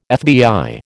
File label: "FBI", American